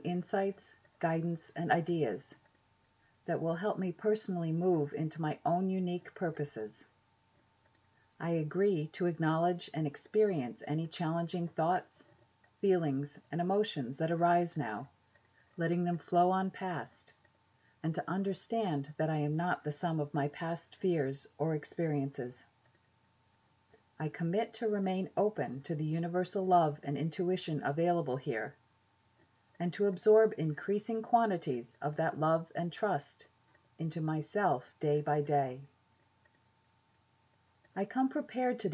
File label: Opening Meditation; Financial Abundance